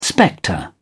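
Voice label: "spectre", English, British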